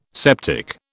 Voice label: "septic", British